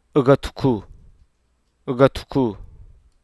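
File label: "gargle", Hruso Aka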